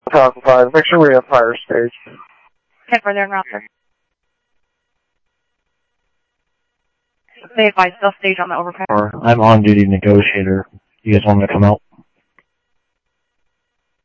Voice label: Mesa Simulcast police; jumper

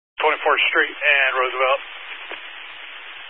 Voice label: Highway Patrol, Phoenix Metro East Area, scanner

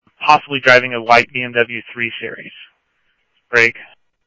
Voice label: Police, scanner audio; ThompsonPeakHigh; SCTSDLE PD - PD2 ScottsdalePolice

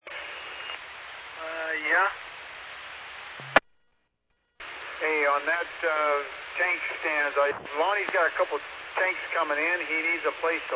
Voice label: Medevac, scanner; NativeAirAmb

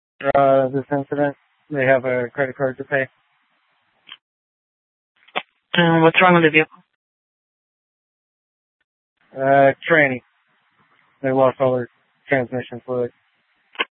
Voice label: Sheriff, scanner P25

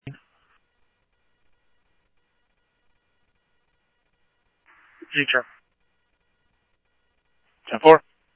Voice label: Scottsdale PD Scottsdale Police, scanner audio

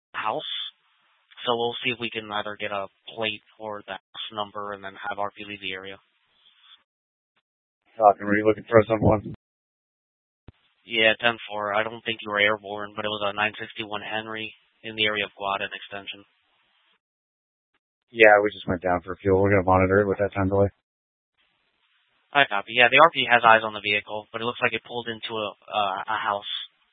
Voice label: Mesa PD Dispatch; TOPAZ Rgnl Wireless Co-op (TRWC); 1 Fiesta Dispatch

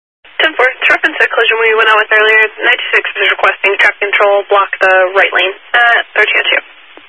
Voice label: Highway Patrol, scanner; DPS EAST; Dist 18 Phoenix Metro South Area

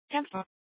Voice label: Scottsdale PD Sth Dispatch, scanner

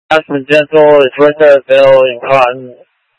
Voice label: Mutual Aid-Intersystem Interop B, scanner

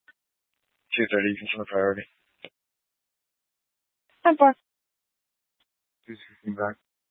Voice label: Police Dispatch, scanner; Peoria Police; White Tanks Regional Park

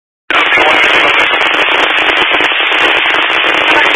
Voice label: Highway Patrol, scanner; DPS

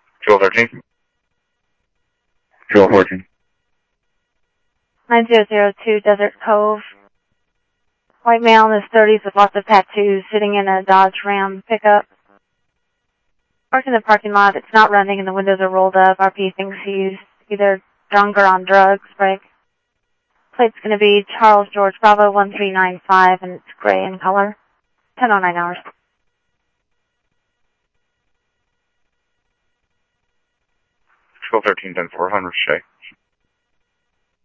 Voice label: PD Police, scanner audio

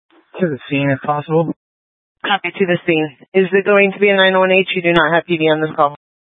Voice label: Fire Dispatch; K8 - FDCOM 8 - South; H- Scottsdale

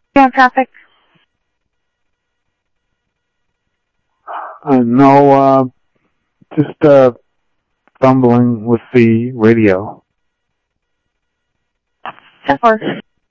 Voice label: Security, scanner audio

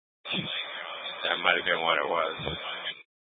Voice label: Public Transit System Light Rail Ops, scanner

